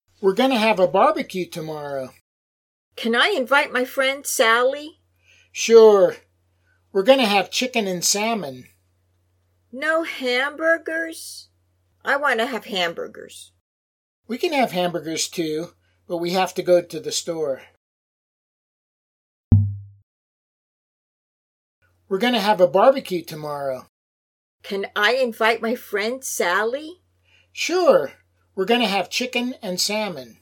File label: Natural Speech